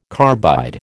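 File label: "carbide", American English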